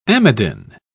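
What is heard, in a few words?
"amidin", English, British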